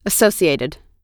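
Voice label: "associated", US English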